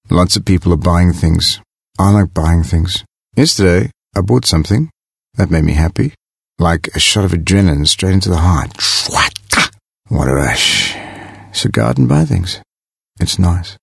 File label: English Dry